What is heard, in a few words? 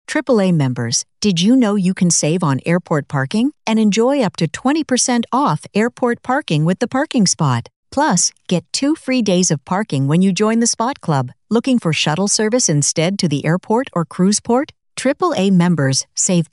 Female